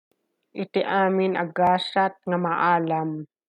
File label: Ilocano